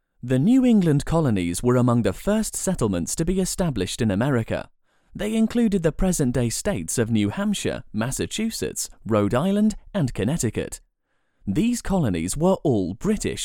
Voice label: e-learning